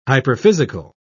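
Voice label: "hyperphysical", British English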